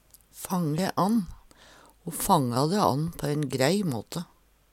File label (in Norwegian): "fange ann", Numedalsmål